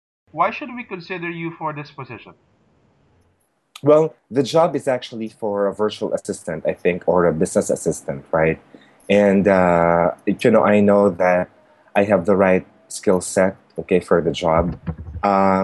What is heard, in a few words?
fluency recording